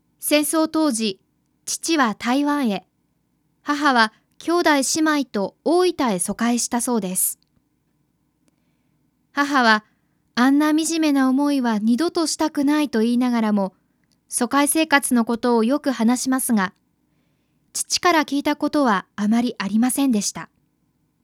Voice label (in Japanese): ナレ